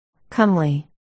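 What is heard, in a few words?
"comely", spoken word, articulation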